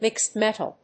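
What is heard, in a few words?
"mixed metal", English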